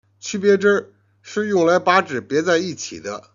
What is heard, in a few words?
"jian", Chinese